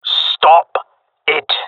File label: taking fire friendly, jungle male; agents